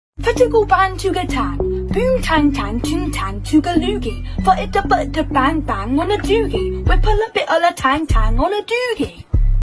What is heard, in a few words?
british rappers